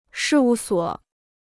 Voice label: "事务所", Chinese

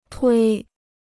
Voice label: "推", Chinese

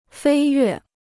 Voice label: "飞跃", Chinese

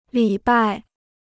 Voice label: "礼拜", Chinese

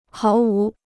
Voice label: "毫无", Chinese

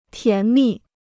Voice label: "甜蜜", Chinese

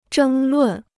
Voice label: "争论", Chinese

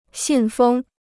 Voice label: "信封", Chinese

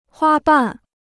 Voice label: "花瓣", Chinese

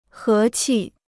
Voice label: "和气", Chinese

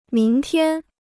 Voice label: "明天", Chinese

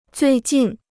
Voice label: "最近", Chinese

